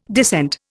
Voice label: "descent", Indian

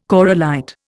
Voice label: "corallite", Indian

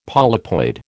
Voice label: "polypoid", American

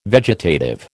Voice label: "vegetative", American

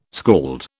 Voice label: "skald", British

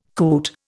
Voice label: "coot", Indian

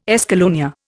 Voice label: "escallonia", Indian

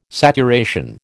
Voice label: "saturation", American

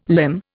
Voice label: "limn", Indian

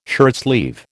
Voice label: "shirtsleeve", American

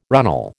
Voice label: "runnel", American

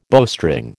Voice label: "bowstring", American

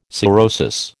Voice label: "cirrhosis", American

